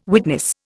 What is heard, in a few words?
"witness", Indian